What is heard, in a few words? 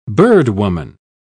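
"birdwoman", British English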